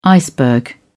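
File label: "iceberg", British English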